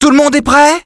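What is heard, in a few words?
Everyones Ready voice, French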